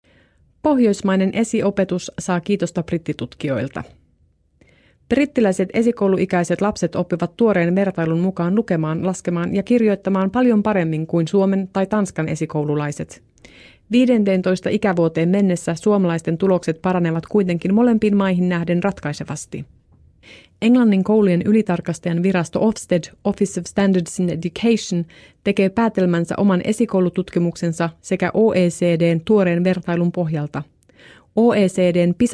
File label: Finnish documentary; voice over